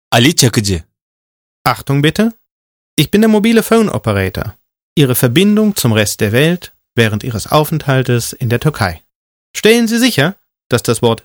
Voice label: german male voices

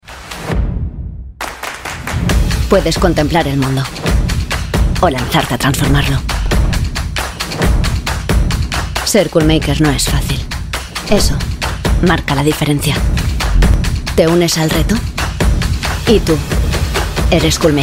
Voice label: spanish, spanish female voices

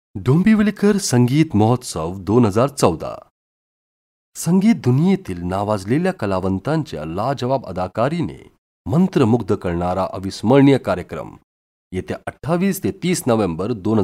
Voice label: hindi

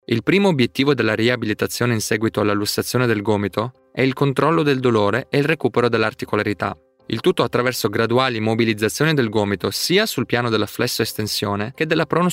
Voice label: italian, italian male voices

